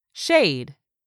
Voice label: "shade", english; 3000 words

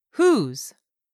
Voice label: "whose", English; 3000 words

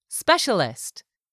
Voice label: "specialist", english; 3000 words

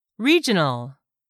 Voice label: "REGIONAL", English; 3000 words

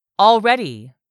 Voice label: "ALREADY", english; 3000 words

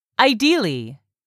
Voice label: "IDEALLY", english; 3000 words

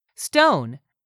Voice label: "stone", English; 3000 words

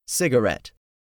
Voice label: "cigarette", english; 3000 words

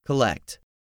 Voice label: "COLLECT", English; 3000 words

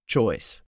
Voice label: "CHOICE", english; 3000 words

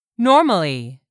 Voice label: "normally", English; 3000 words

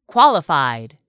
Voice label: "qualified", English; 3000 words

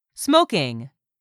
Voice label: "SMOKING", english; 3000 words

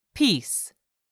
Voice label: "peace", English; 3000 words

